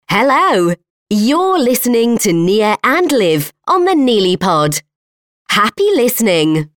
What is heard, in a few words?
jingle speaking; 'Hello' Jingle (speaking)